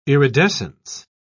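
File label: "iridescence", English, British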